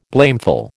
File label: "blameful", American